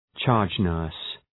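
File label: "charge nurse", English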